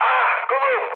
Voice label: damaged pilot, mood high, speech tur; War Thunder